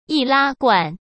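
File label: "易拉罐", Mandarin Chinese; hsk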